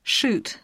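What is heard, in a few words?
"chute", English; poitiers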